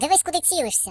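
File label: Watch it, British; hedgewars; HedgewarsMobile; Default uk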